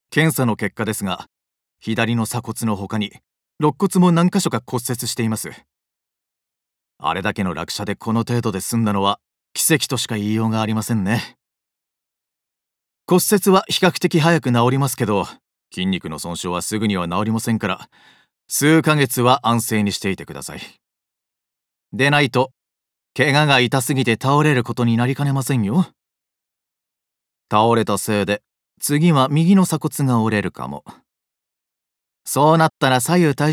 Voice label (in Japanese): 医師40代